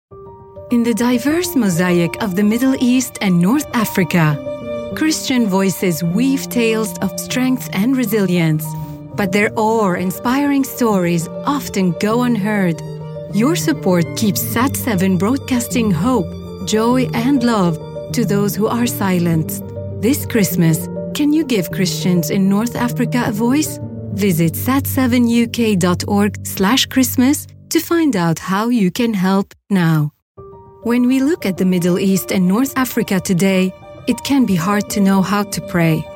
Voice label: arabic female english charity style, Warm Reassuring Arabic Female Voiceover